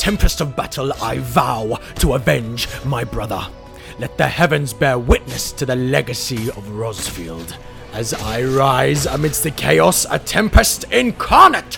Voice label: male english australian and italian english with undetectable accent